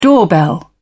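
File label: "doorbell", British English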